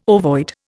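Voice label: "ovoid", Indian English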